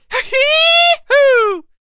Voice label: Lasso Attack Vocals